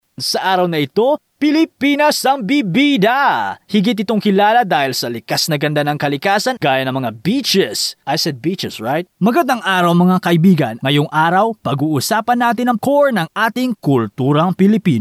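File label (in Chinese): 菲律宾语男_外语_小语种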